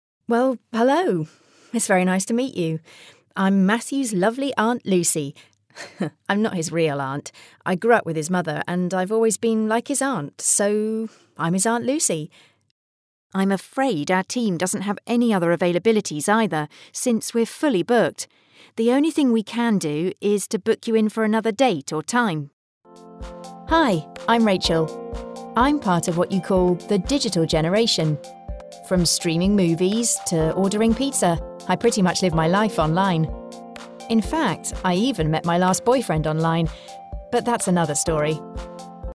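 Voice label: British English; generico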